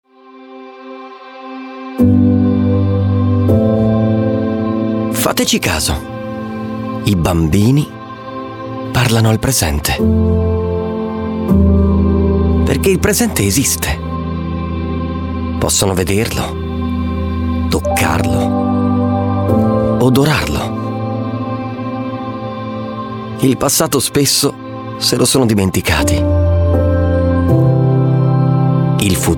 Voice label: italian voice over male narrator

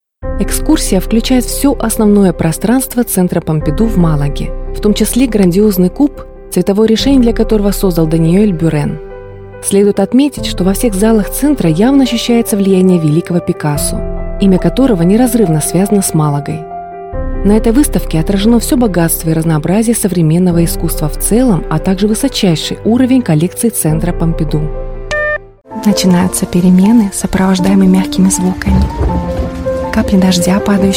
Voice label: russian voice over female narrator

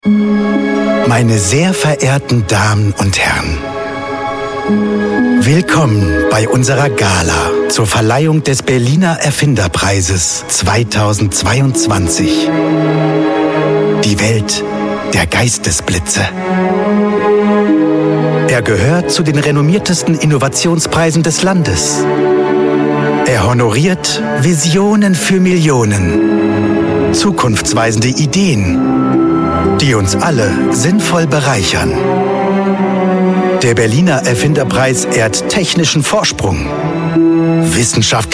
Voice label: german voice over male narrator

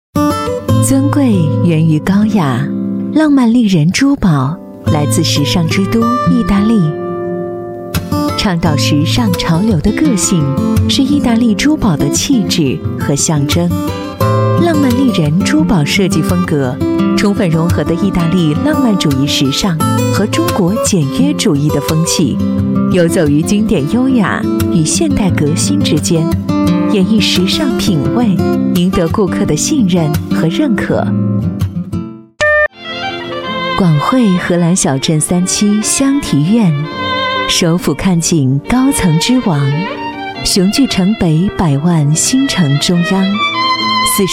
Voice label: chinese voice over female commercial

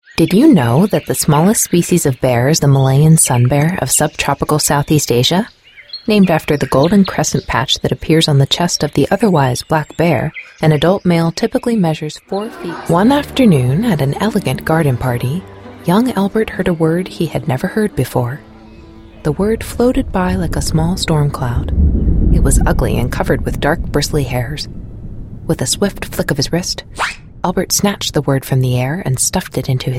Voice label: english american voice over female commercial

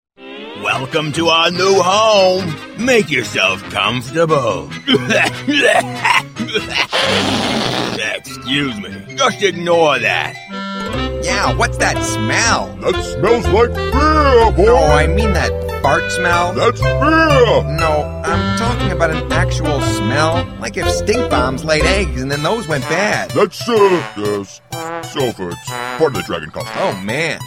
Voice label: english american voice over male animation

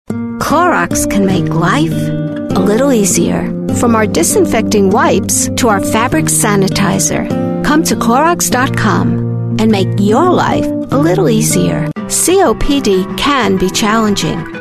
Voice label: english american voice over female commercial

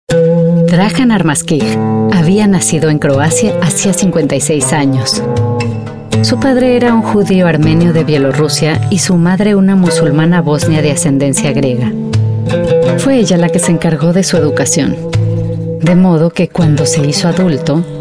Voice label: spanish voice over female narrator

